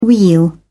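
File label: "weal", British English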